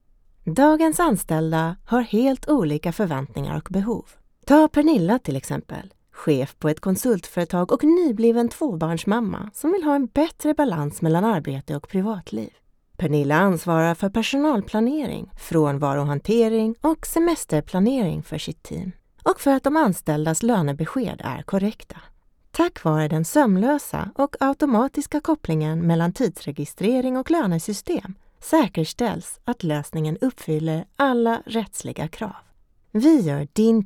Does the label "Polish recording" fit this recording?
No